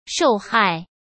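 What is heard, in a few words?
"受害", Mandarin Chinese; hsk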